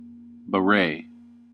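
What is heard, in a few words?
"beret", American English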